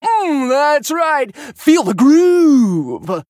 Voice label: Male Human Play